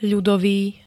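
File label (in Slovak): "ľudový", Slovak; ľ-é zhromaždenia na námestiach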